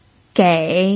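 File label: "gei", Mandarin Chinese, third tone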